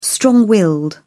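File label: "strong-willed", British English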